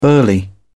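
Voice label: "burly", British English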